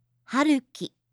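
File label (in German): "Haruki", japanische Mädchennamen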